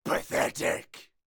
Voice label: Melee Miniboss Mid Combat Line; NPC; Heist Military Mid Combat